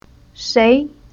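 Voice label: "Shei", Chinese syllable; Уроки фонетического блока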